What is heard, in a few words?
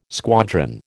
"squadron", American